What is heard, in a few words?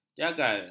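"jagal", Malay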